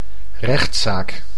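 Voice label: "rechtzaak", Dutch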